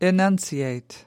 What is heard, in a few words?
"enunciate", us